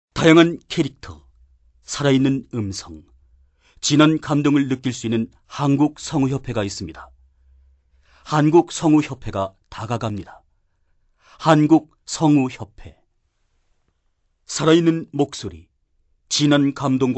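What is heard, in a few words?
Korean, male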